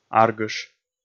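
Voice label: "аргыш", Komi, male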